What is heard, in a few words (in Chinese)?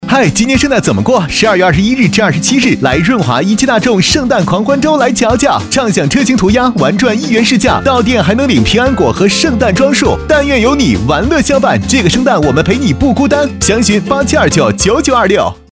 男 广告 圣诞 年轻活泼